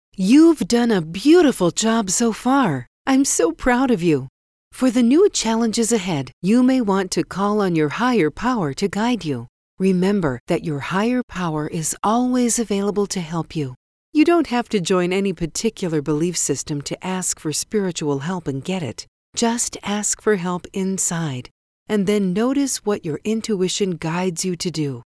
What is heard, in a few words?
Meditation